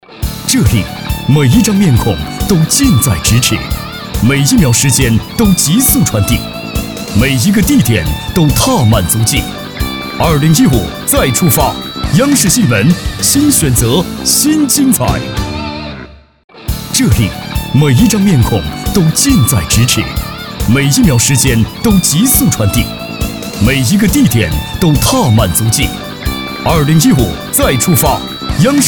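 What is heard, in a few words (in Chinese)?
国语 男声 宣传片 大气浑厚磁性 沉稳; 电视节目宣传片-激情有力; 新选择新精彩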